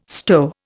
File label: "stow", Indian